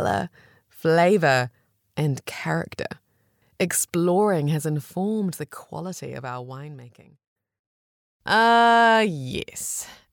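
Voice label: New Zealand English, female, voice over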